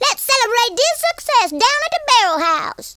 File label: victory, Blues Man speech